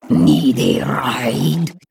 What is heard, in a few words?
Female Jinyu Play